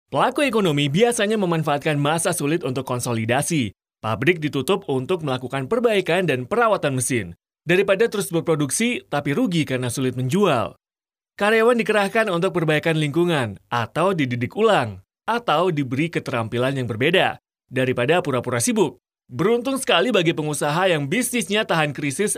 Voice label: male indonesian voice over talent upbeat

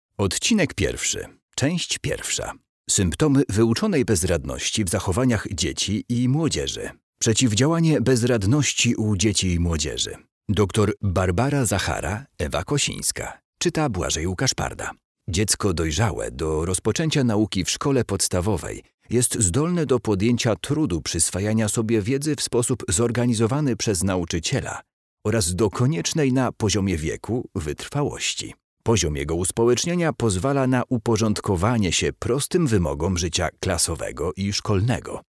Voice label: male polish voice over talent narrative

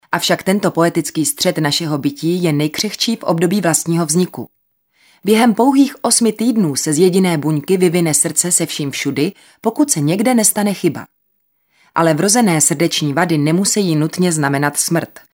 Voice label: female czech voice over narrative

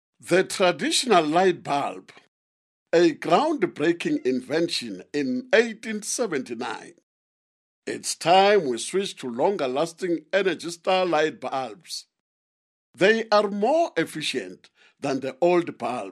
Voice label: male swazi voice over talent english

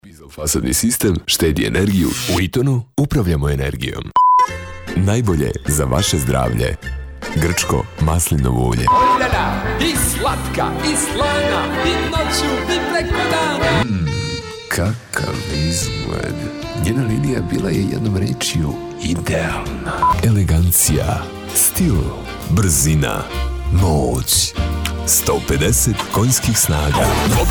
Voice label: male serbian voice over talent sales

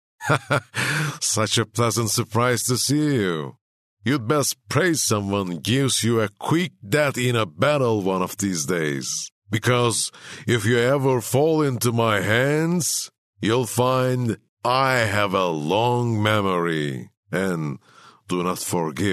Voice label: male turkish voice over talent english

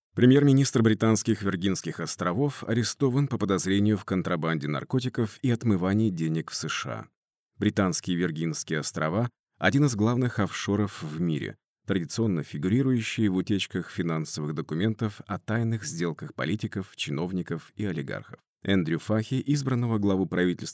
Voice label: male russian voice over talent narrative

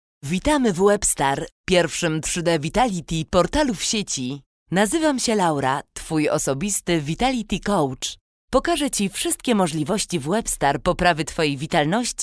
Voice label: female polish voice over narrative

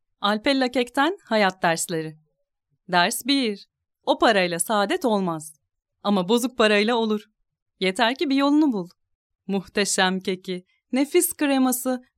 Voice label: female turkish voice over upbeat

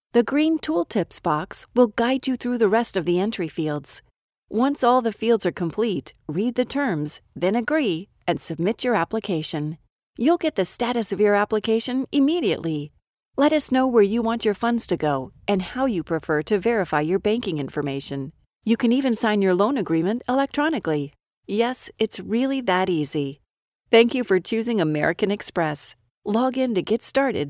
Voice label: female american voice over narrative

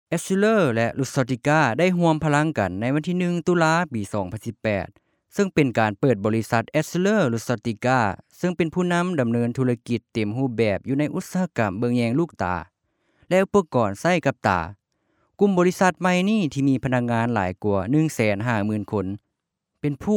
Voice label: male lao voice over talent narrative

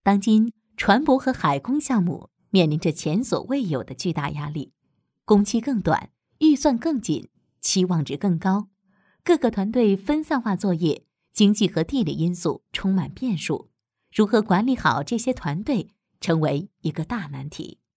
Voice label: female chinese voice over narrative, mandarin

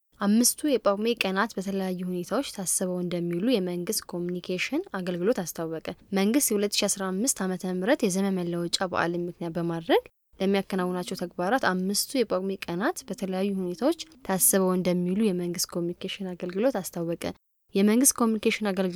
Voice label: female amharic voice over narrative

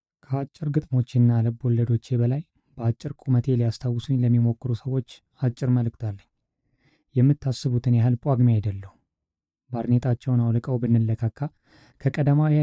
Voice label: male amharic voice over narrative

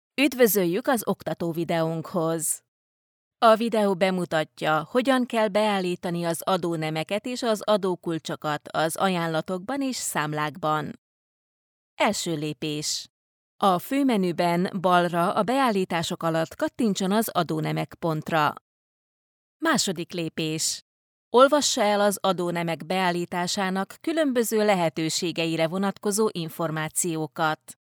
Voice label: female hungarian voice over narrative

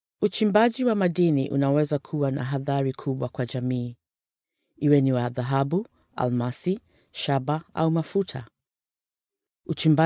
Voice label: female swahili voice over narrative

